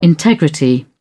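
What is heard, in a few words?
"integrity", British English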